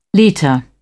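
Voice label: "litre", British English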